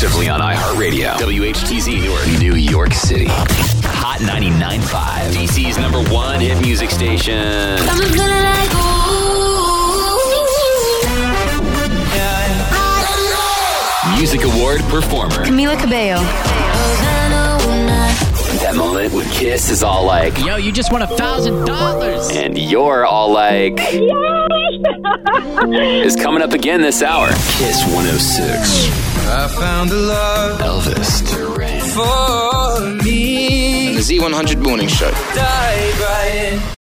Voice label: radio men chr; radio imaging